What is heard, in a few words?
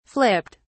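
"flipped", British English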